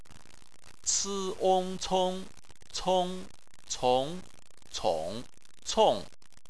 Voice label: cong, chinese